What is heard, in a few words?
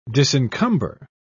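"disencumber", British English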